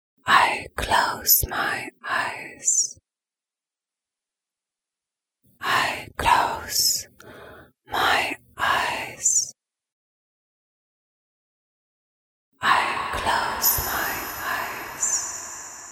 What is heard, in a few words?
Whisper, Reverb; AtomicReverb